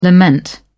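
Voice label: "lament", British English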